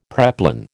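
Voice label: "preplan", American English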